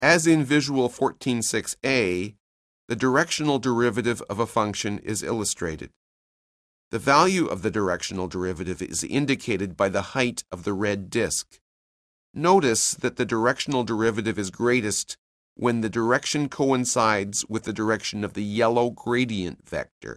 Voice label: narration; CALCULUS 7E; cengage